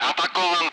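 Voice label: Speech, Russian, loud; Messages; Allied Flight; defending; DCS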